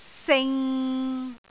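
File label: "sing6", Cantonese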